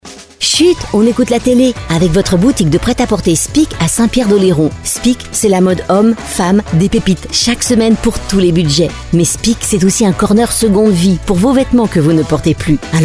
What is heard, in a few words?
Sponsor Promo; Chut on écoute la télé avec vos boutiques speak en Nouvelle Aquitaine et Centre; COELT; St Pierre